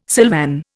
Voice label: "silvan", Indian